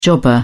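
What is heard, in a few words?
"jobber", British English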